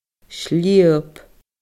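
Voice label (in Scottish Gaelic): "slìob", Scottish Gaelic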